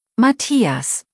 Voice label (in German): "Matthias", deutsch, jungennamen